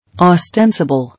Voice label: "Ostensible", English

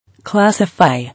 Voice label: "Classify", English

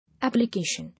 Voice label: "Application", English

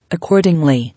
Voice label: "Accordingly", English

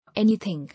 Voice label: "Any thing", English

